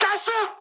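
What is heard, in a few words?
bailout mood med, speech japanese; War Thunder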